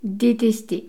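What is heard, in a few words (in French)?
"deteste", French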